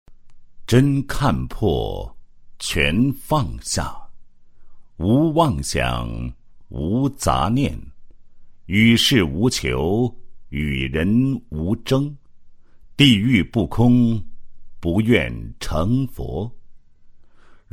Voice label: 男 诗歌; 师父法语

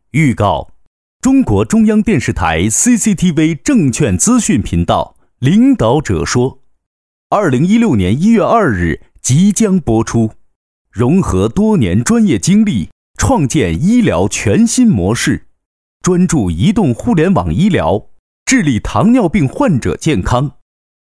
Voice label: 男, 专题, 央视范; 中央电视台-领导着说

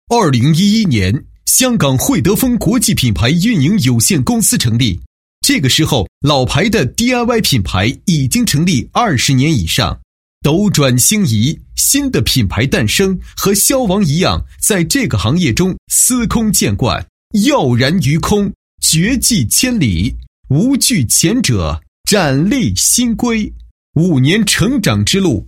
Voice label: 男 年会 旁白, 配音; 年会视频旁白

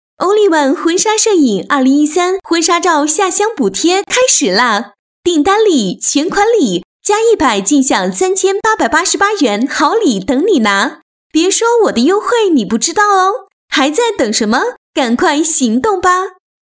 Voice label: 女 激情广告; 欧利婉婚纱活动

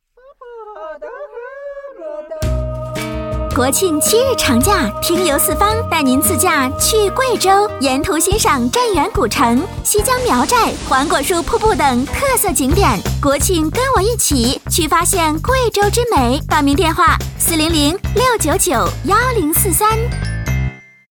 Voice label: 女 广告 宣传, 国语女声配音; 听游四方 贵州

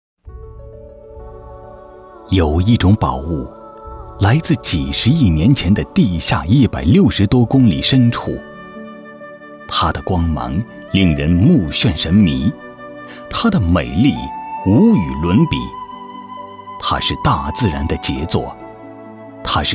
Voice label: 男 专题 钻石 神秘大气

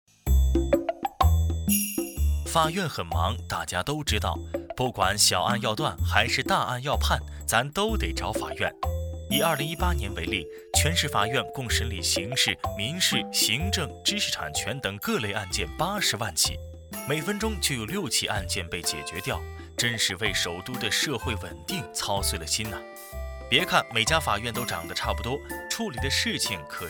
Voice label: 讲述, 轻松讲述; 北京法院

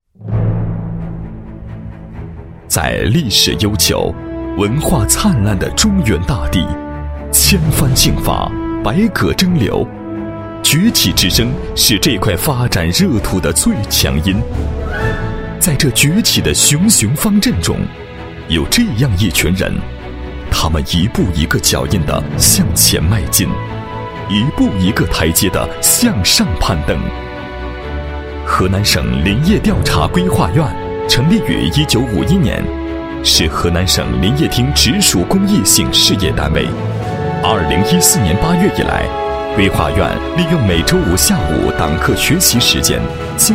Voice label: 男 解说 林业调查规划院文明单位情况汇报, 配音案例

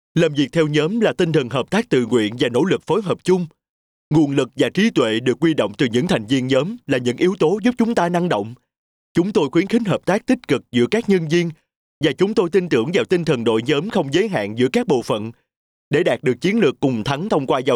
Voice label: 越南语男

